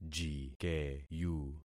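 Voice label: "jikeyu", concatenated syllables; Affixing experiment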